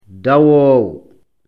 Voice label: "dawoowo", lecture fl

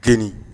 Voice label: "jeni", Bambara

